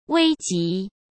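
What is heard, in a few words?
"危及", Mandarin Chinese; hsk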